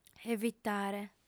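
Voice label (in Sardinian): "evitare", female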